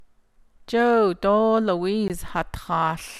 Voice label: navajo; conjunction; Joe and Louise are singing